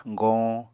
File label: "goñ", Apatani